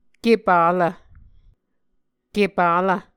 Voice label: "kepalv", Muscogee